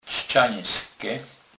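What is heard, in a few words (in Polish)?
"trzcenske", Kurpie dialect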